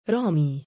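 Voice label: "raamii", Arabic, male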